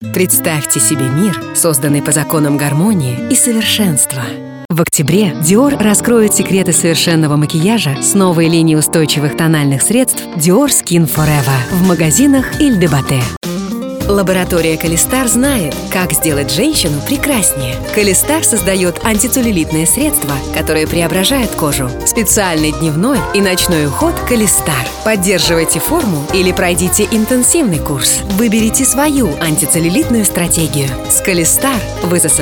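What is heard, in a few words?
Russian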